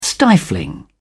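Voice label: "stifling", British English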